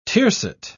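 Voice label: "tiercet", British English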